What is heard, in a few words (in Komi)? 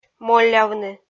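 "моллявны", Komi, female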